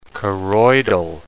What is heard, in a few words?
"choroidal", English